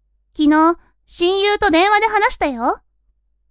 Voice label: women